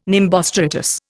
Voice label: "nimbostratus", Indian